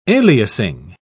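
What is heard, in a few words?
"aliasing", British English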